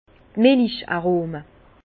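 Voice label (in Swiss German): "millicharome", Alsace Bas Rhin; vin; arômes lactés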